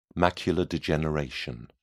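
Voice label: "macular degeneration", British English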